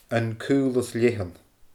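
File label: "An Caolas Leathann", Scottish Gaelic; ainm-àite fir.; Broad Cove (NS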